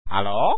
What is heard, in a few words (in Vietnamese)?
"alo", giong nam